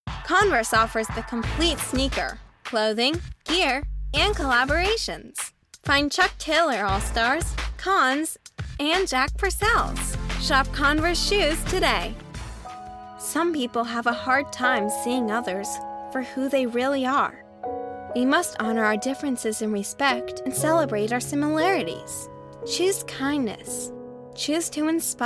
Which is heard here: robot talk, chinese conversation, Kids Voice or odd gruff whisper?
Kids Voice